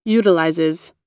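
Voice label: "utilizes", American English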